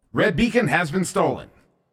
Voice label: Stolen Red, Announcer